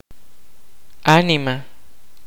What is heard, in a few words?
kaqchikel; soul